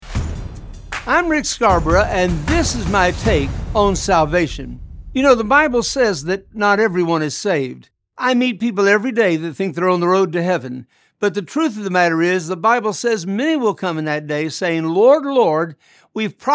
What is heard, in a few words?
Radio Spot; Salvation